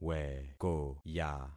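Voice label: "wekoya", concatenated syllables; Affixing experiment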